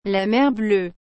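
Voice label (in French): "la mer bleue", French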